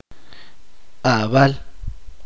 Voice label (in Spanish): "noche", chuj